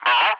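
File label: "Boar", English, ATC callsign; DCS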